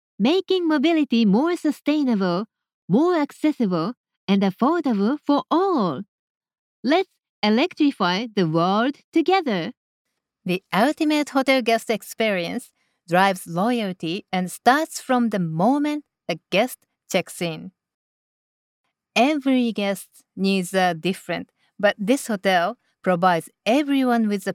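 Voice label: Japanese, female, English, Hotel; Rayos Hotel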